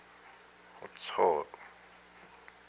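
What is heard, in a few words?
"her vagina", Hupa, male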